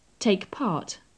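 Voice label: take part, British English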